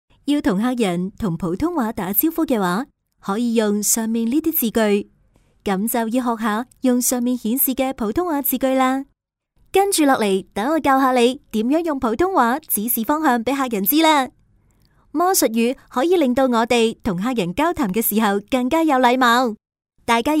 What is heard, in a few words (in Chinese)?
粤女 服务礼仪